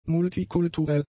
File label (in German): "multikulturell", German; adjectives